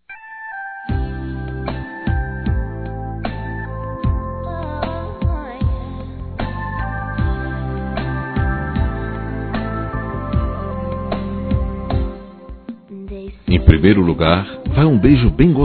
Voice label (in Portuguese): Ficante M; Aniversários; ANIVERSARIO romantico; masc